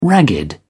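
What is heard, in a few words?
"ragged", British English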